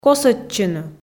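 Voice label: "косӧдчыны", Komi, female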